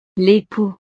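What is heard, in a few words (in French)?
"lepoux", French; el esposo; familia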